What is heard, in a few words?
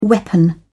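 "weapon", British English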